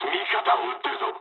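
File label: player friendly fire mood low, speech japanese; War Thunder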